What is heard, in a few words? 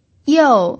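"you4", Mandarin Chinese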